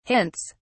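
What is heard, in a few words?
"hints", British English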